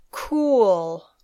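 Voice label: "cool", English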